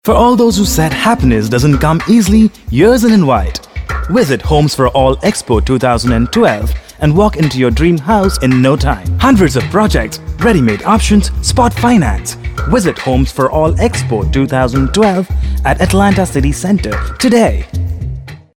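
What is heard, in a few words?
homes English, male voice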